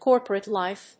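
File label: "corporate life", English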